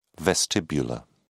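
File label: "vestibular", British English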